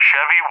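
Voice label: "Chevy", English, Tanker; Callsign; DCS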